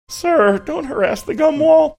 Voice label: saying sir dont harass gum wall cracked voice

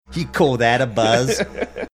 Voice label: saying you call that a buzz australian accent

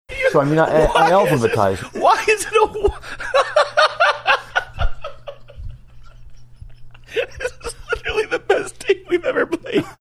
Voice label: cracking up while saying why is it a war

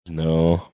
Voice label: sheepish no

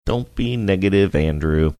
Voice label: saying dont be negative singsongy

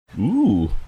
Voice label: quiet "ooh"

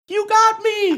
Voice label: saying you got me falsetto

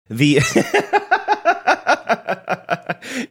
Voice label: saying the starts laughing

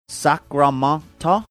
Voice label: saying sacramento as if it was french

